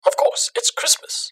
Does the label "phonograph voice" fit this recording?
Yes